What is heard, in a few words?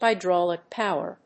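"hydraulic power", English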